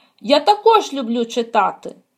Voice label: dialogue, Ukrainian